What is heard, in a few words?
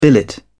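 "billet", English, uk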